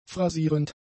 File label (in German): "phrasierend", German; adjectives